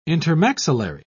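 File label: "intermaxillary", British English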